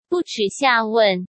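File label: "不耻下问", Mandarin Chinese; chinese flashcards; hsk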